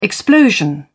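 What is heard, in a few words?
"explosion", British English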